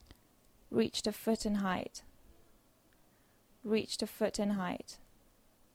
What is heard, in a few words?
Dictation; phonology